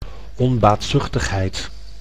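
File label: "onbaatzichtigheid", Dutch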